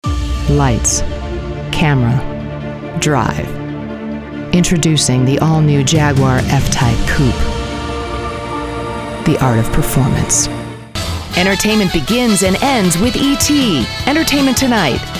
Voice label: Commercial